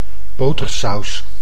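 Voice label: "botersaus", Dutch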